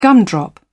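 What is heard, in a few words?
"gumdrop", British English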